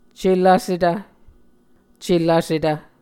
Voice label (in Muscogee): "celvsetv", Muscogee